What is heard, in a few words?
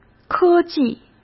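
"科技", Mandarin Chinese; chinese-flashcards; hsk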